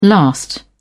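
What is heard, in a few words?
"last out", British English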